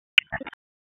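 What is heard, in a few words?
Police Spec Ops Tac, radio; Raleigh; Wake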